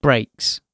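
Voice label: "breaks", British English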